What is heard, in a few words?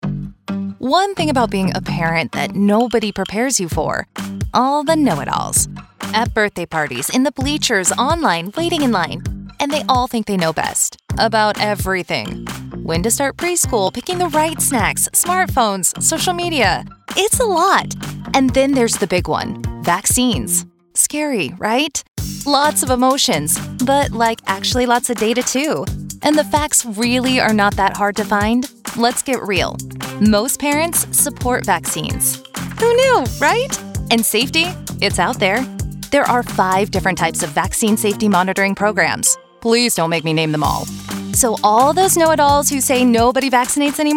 radio; LGR; Momologue; English